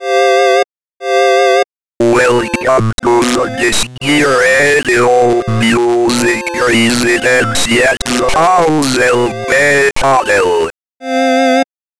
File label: Welcome Robot; weird